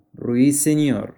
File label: spanish